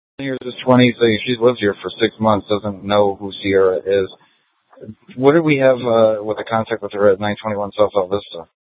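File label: police department dispatch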